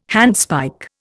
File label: "handspike", Indian